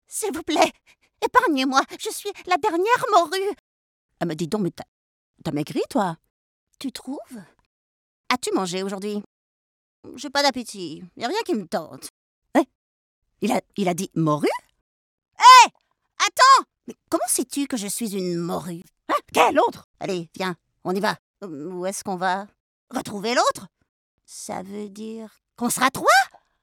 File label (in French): Voix de personnages Fr normatif Voix film jeunesse; Katak